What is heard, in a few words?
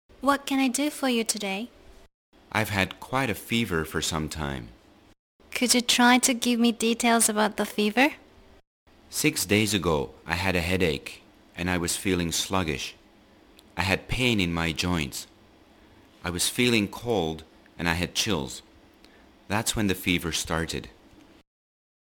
Conversation